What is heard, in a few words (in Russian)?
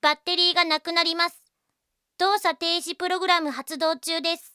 zvuki s golosom iaponskoi anime devushki